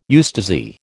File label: "eustasy", American